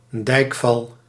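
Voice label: "dijkval", Dutch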